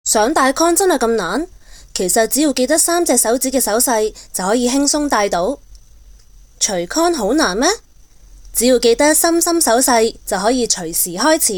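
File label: Cantonese, female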